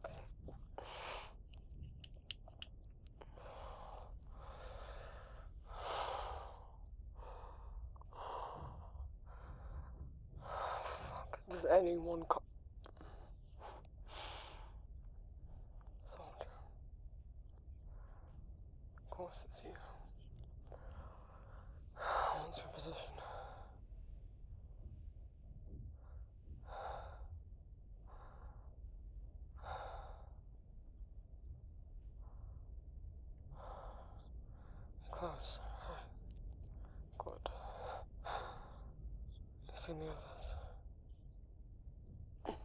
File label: Injured Ghost Confesses His Feelings with bg ambience; COD; Ghost x Listener; SFW